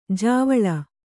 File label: "ಜಾವಳ", Kannada